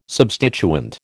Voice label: "substituent", American